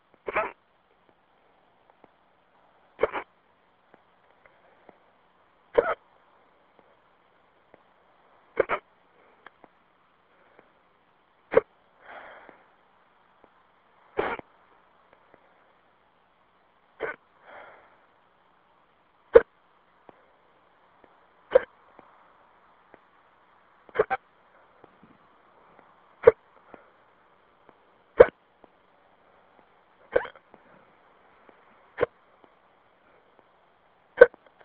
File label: phone msg